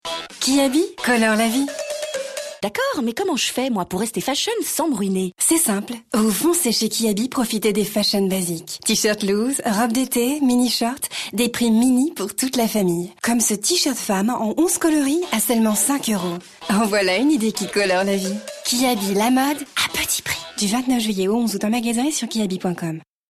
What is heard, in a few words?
fashion basics RADIO; Kiabi